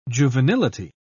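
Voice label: "juvenility", British English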